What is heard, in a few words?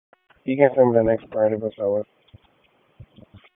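Police Northwest District Dispatch; Raleigh; wake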